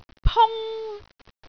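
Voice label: "pung1", Cantonese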